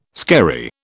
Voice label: "skerry", British